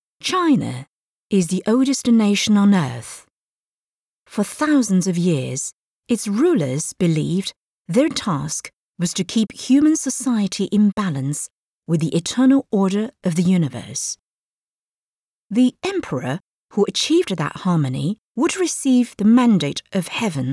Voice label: Chinese English F Documentary, english in foreign accent